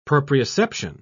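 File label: "proprioception", British English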